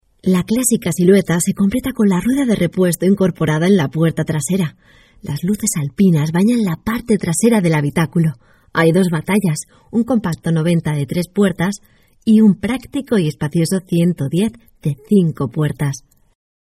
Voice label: locutora espanola spanish voiceover